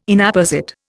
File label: "Inapposite", Indian